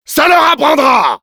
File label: autocapped intelligence, fr; "Ça leur apprendra !"; Réponses du Demoman